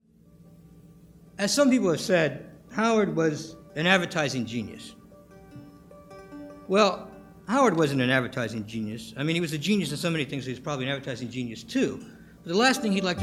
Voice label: Eulogy speech

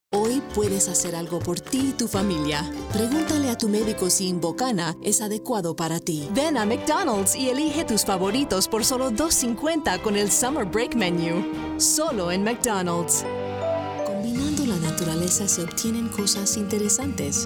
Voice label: Spanish

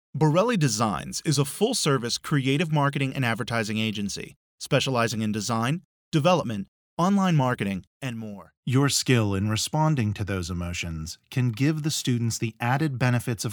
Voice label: Narration

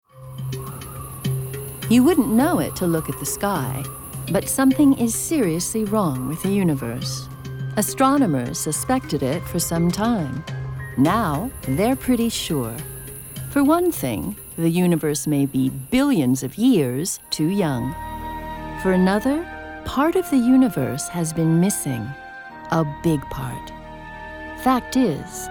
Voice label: montage narrative

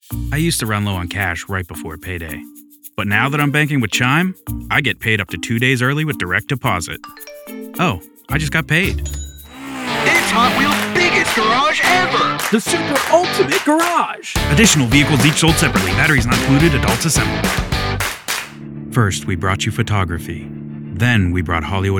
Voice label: Commercial

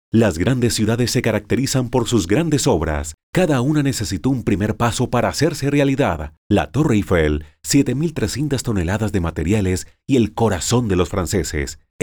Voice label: voice actor; VoiceBunny

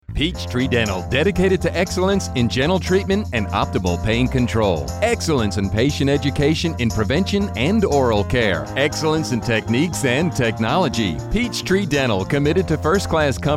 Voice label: Radio Kids ad; PeachTree Dental; GodleyWorks Production

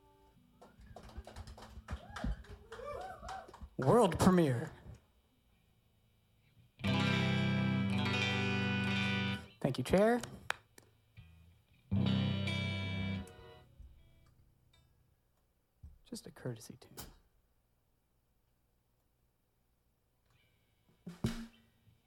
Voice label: Banter

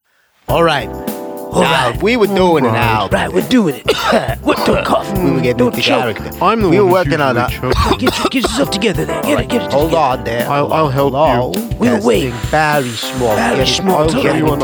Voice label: Trio Banter

